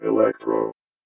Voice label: VOICEFX ELCTR 140; Techno2